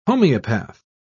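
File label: "homoeopath", British English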